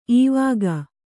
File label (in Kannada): "ಇವಾಗ", kannada